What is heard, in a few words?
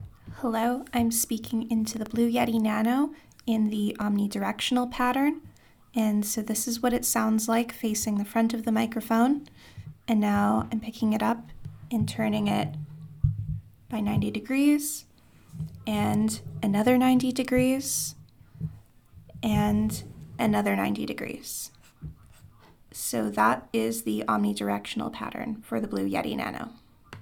omnidirectional voice; blue yeti nano